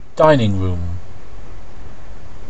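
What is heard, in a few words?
"dining room" English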